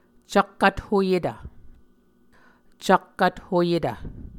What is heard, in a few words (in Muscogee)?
"cak vthoyetv", Muscogee